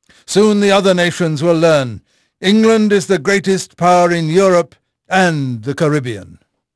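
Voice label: English male; Pirates Of The Caribbean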